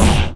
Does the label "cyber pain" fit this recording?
Yes